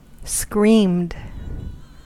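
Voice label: "screamed", US English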